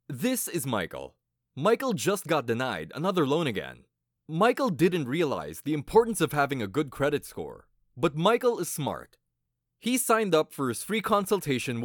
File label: English (Asian), Male